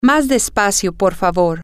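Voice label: slower please, Spanish, female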